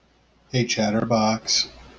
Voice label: hey chatterbox, en-us